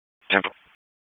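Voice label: Police Southwest District Dispatch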